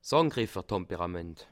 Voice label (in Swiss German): "angriffer", alsace